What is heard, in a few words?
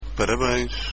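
happy birthday portuguese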